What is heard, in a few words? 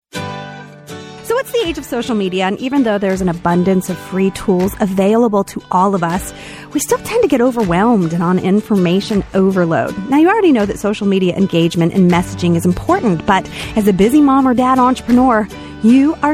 Ad Spot; Smqueue